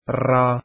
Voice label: "raa", Arabic alphabet